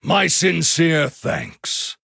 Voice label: Male Human Thanks; en

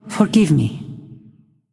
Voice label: Female Ghost SORRY; en

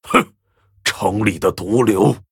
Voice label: Male Orc Ogre Greetings, zh

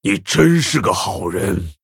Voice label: Male Orc Ogre Thanks, zh

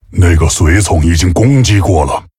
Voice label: Male Tauren ERROR MINION ATTACKED, zh

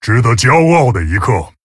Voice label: Male Tauren Well Played, zh

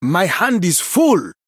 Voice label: Male Troll, ERROR HAND FULL; en

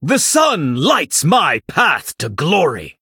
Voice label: Male Blood Elf Picked; en

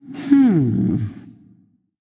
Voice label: Female Ghost THINK; en

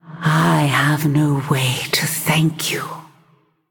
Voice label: Female Ghost Thanks; en

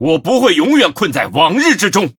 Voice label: Male Blood Elf Play; zh

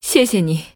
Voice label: Female Nightborne Thanks; zh

